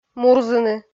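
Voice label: "мурзыны", Komi, female; kpv audio dict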